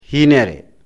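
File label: "hinere", Fula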